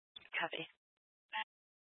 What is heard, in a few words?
Fire Department Dispatch, scanner; Grand Junction